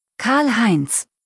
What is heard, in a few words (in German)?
"Karlheinz", deutsch, jungennamen